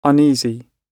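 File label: "uneasy", British English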